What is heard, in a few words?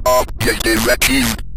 objective achieved, Synth Voice; Kenney's Sound Pack; Synth Voice 1